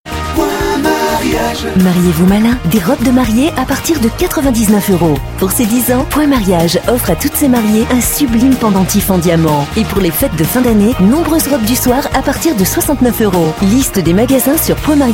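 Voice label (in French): spot, spots radio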